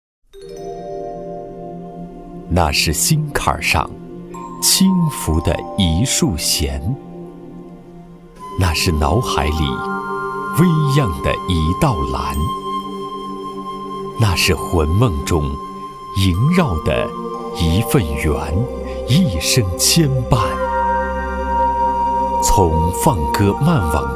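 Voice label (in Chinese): 男国大音-历史纪录片-音乐-样音; 纪录片配音; 苏韵流芳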